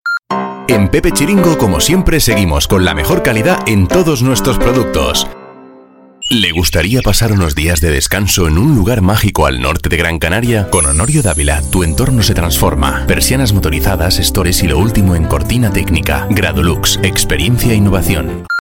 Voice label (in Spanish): LOCUTOR RADIO; CUÑAS